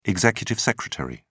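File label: "executive secretary", British English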